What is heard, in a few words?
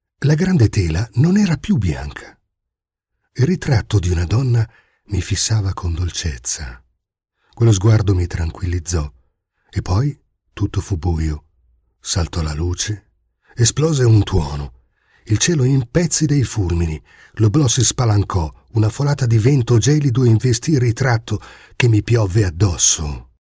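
Italian male voice over, narrative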